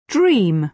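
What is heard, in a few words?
"dream", British English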